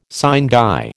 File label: "sine die", American